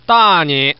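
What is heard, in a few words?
Man, "beat you"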